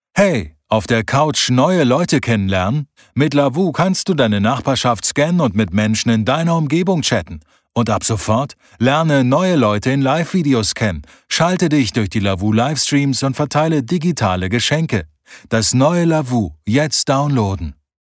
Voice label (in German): Werbung, Sprecher; warme, ausrucksstarke Stimme; Lovoo